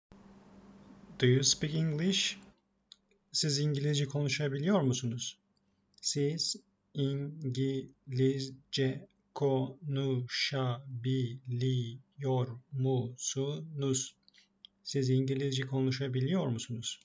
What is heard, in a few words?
"Do you speak", Turkish; the talking turkish phrasebook